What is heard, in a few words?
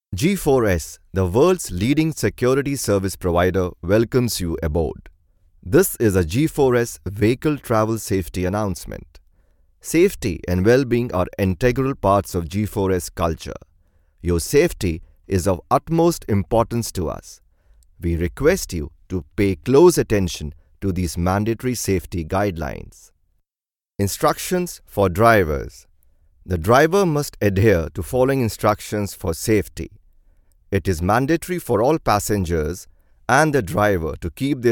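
training English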